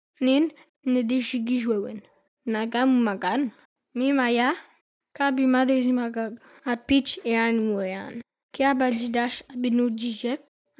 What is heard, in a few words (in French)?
voix enfant; LA CULTURE ANICINABE; MINWASHIN